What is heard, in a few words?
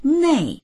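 "nei4", Mandarin Chinese; 内; 中、内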